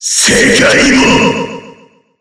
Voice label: Roar; BBCF; Susanoo